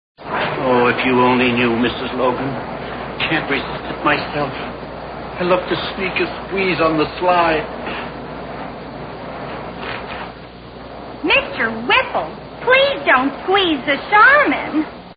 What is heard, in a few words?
Commercial; Charmin